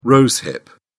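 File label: "rose hip", British English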